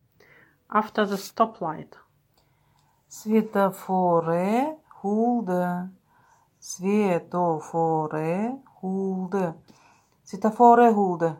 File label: After the stoplight, buryat